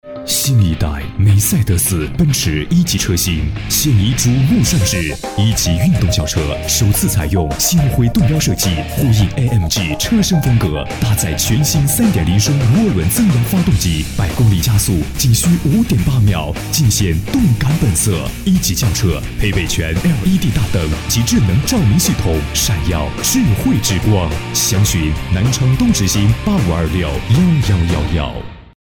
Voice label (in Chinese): 男 广告 洋气 动感; 奔驰--梅赛德斯; 中央电视台CCTV8台标声